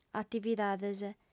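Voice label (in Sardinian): "atividades", female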